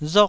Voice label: "rok4", taiwanese syllable